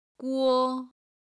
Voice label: "guo1", Chinese

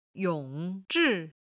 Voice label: "yong3zhi4", Chinese

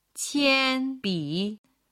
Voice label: "qian1bi3", chinese

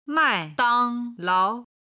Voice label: "mai dang lao1", Chinese

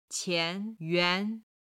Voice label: "qian2yuan2", Chinese

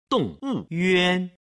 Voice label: "dong wu yuan", Chinese

